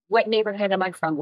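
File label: Voice Acting es, female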